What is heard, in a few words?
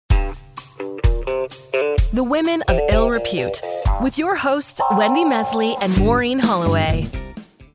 english canadian podcasts